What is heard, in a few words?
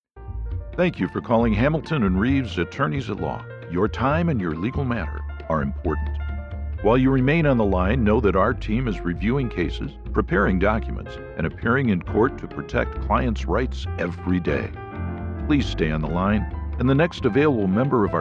On Hold